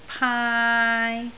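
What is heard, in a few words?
"paai3", Cantonese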